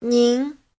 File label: "nin2", Chinese